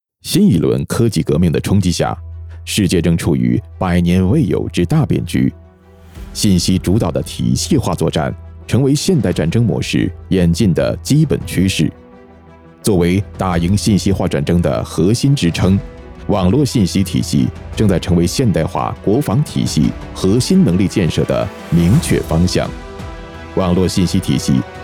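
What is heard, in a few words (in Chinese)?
沉稳干练中文; 网络聚能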